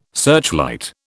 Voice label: "searchlight", British